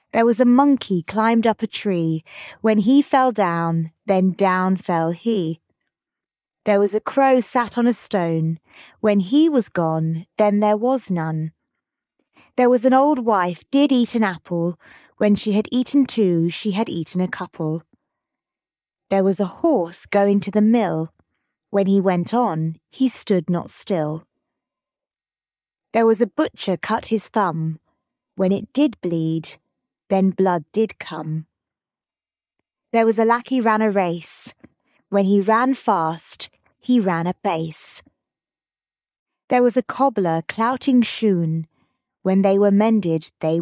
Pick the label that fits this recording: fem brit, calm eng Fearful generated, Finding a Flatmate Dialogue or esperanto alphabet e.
fem brit